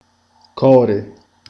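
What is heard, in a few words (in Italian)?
"cuore", Italian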